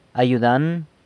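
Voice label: "ayudan", San Lucas Quiaviní Zapotec; helper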